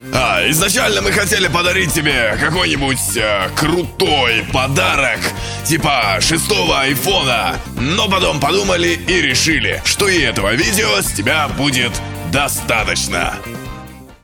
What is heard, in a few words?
impersonation